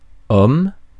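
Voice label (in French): "homme", French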